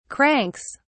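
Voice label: "cranks", English, British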